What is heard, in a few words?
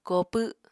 "koopw", Apatani